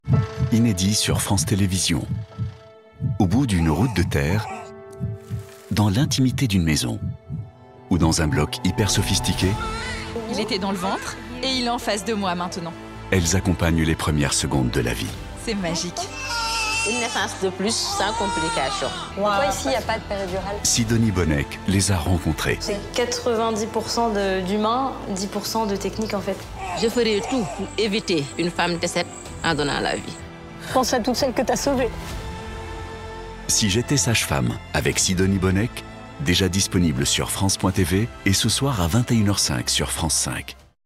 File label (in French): Bande annonce; Si j etais sage femme